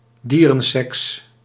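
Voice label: "dierenseks", Dutch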